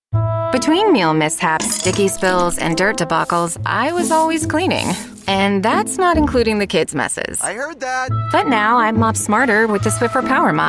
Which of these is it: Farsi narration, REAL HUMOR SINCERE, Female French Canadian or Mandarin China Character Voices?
REAL HUMOR SINCERE